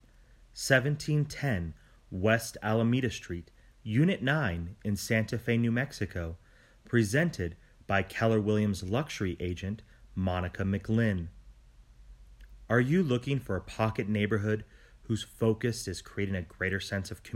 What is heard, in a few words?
voiceover